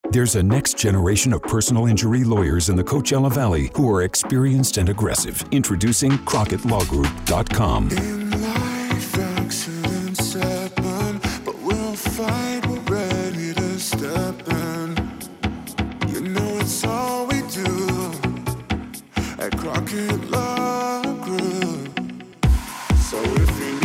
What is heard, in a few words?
radio commercial